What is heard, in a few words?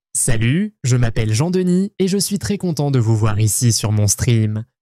dynamic cheerful